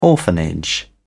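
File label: "orphanage", British English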